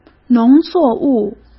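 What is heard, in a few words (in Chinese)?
"农作物", Mandarin Chinese; hsk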